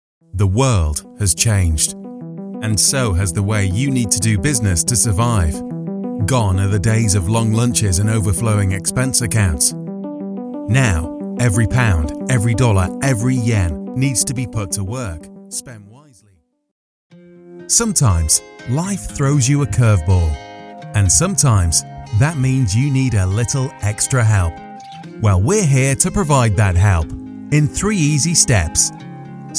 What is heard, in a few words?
corporate